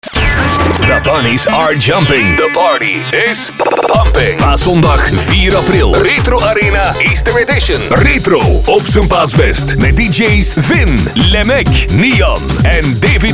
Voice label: party commercial; retro arena easter edition